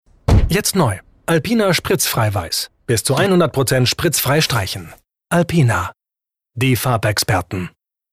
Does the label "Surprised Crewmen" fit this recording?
No